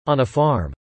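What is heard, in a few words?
"on a farm" spoken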